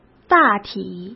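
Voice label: "大体" Mandarin Chinese; hsk; chinese-flashcards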